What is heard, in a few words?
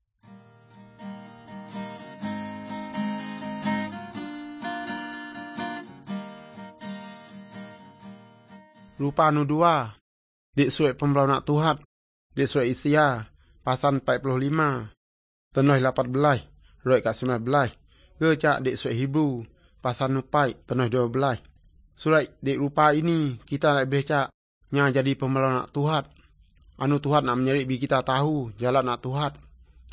good news, urak lawoi